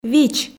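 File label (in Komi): "вич", Komi, female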